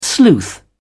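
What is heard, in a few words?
"sleuth", British English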